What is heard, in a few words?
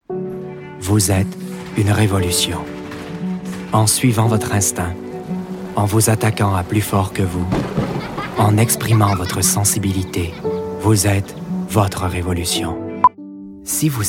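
Canadian French, General; GoLocalise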